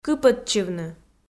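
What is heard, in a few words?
"кыпӧдчывны", Komi, female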